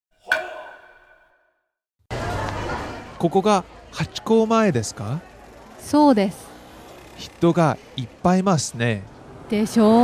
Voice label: dialog; JapanesePod101; Beginner Season 1